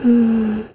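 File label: Vox Think, Korean; heroes; Ripine; kings raid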